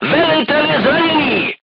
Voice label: voice message commander wounded, crew tanks czech

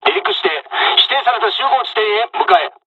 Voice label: takeoff, dialogs japanese; midway jpn; War Thunder

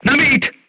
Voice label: voice message load, crew tanks czech

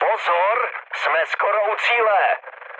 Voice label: near waypoint, speech cze, mood low; War Thunder

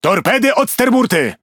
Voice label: Speech Alarms Torpedo Right, PL; World Of Warships

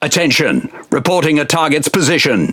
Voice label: Pirate Speech Quick Commands Enemy Ship; World Of Warships

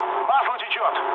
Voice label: damaged oil, mood low, speech ru; War Thunder

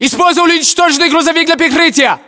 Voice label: order cover truck destroyed, ru

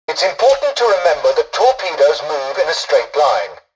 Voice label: tutorial dialogue, english; War Thunder

